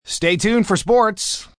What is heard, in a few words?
Tuned Sports M, Broadcast, announcer, breakaway, male; VoiceBox